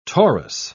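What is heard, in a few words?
"torus", English, British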